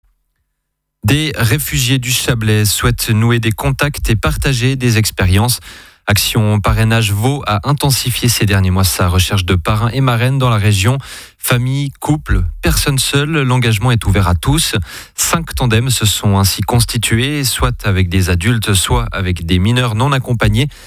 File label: Radio